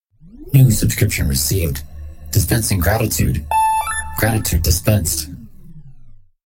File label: new subscription dispensing gratitude male spark personality